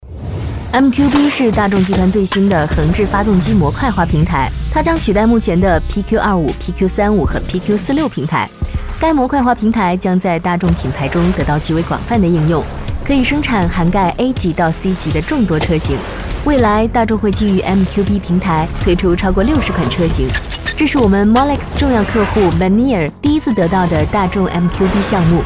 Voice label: 女 专题 平台介绍 简洁; 大众平台介绍

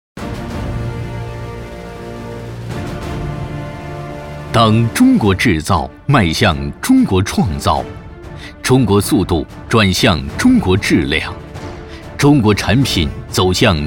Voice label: 男 宣传片 汽车; 精工汽车

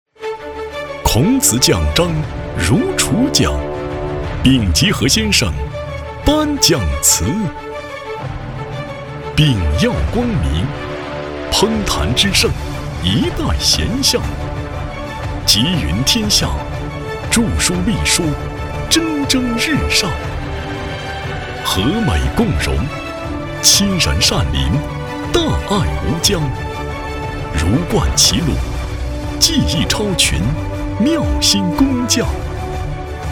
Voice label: 男, 大气颁奖类; 孔子奖章

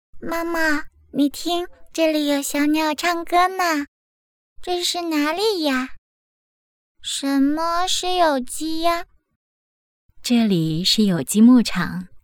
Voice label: 母子对话舞台剧 短剧配音; 灵狐; 活动开场; 母子对话舞台剧内容框架

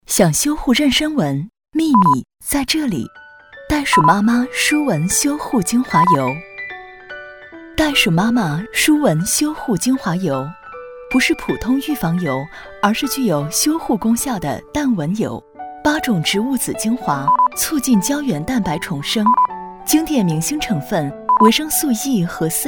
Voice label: 女 广告; 袋鼠妈妈

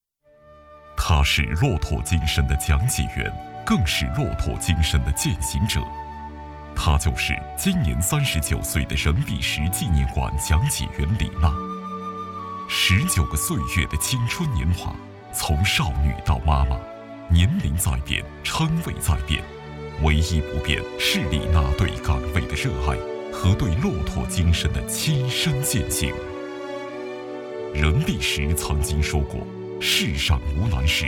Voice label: 男 讲述人物介绍

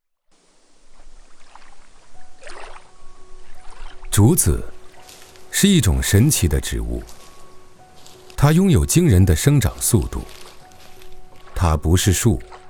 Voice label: 男, 记录 自然 高级; 竹子在中国

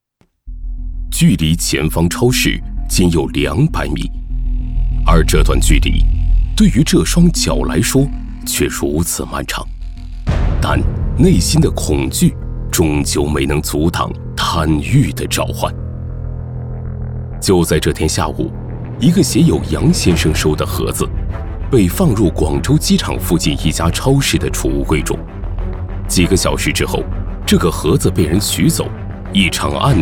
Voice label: 男 专题解说 悬疑; 代价之无痕的圈套

